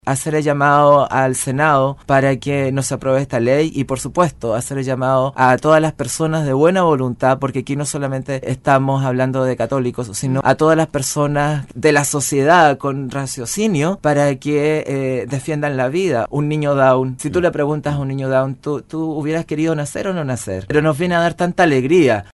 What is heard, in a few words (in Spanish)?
cuña cura párroco, radio